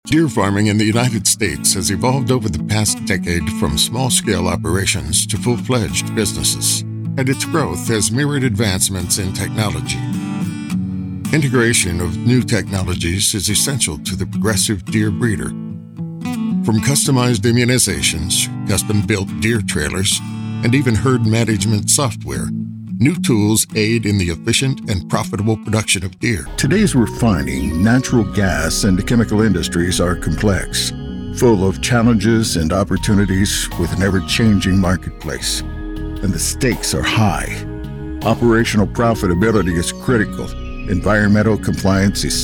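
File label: Voice Corporate Industrial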